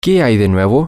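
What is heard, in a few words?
whats new, Spanish, male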